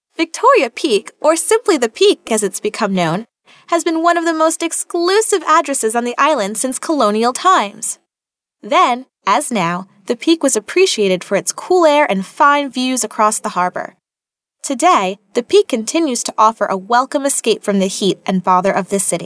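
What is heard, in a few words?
American English female corporate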